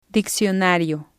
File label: "diccionario", spanish